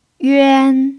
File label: "yuan1", pinyin